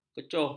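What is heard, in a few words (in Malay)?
"kecuh", Malay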